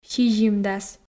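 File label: "сизимдас", Komi, female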